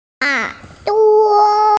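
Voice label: "ear", Mandarin, hearing-impaired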